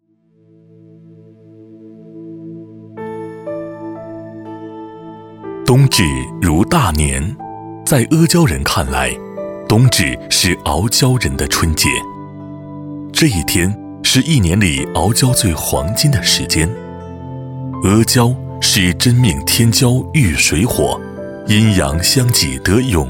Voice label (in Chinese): 男60号 记录片; 古代阿胶; 交广主播